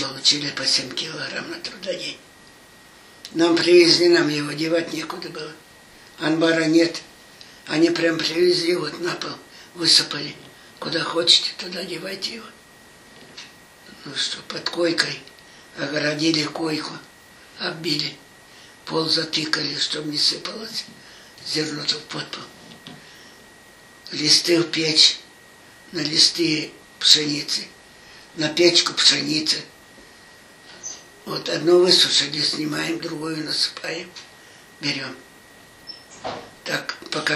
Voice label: middle ob dialect; анбар